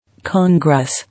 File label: "Congress", English